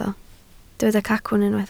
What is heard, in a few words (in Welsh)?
"cacwn", Welsh